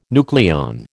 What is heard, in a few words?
"nucleon", American